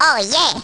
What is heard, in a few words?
baby "oh yeh"; baby luigi